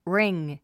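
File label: "ring", English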